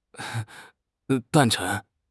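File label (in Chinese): "诞辰", Chinese; HSK6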